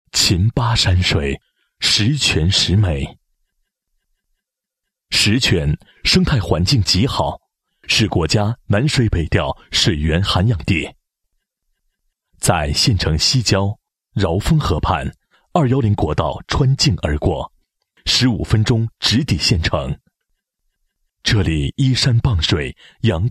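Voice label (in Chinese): 男 产品介绍 绿色富硒的首选生态鸡蛋; 灵雀山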